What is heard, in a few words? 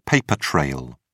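"paper trail", British English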